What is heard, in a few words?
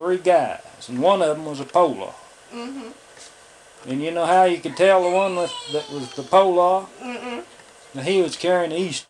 Conversation with 52 year old white male and acquaintances West Virginia, american english dialect